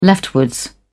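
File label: "leftward", British English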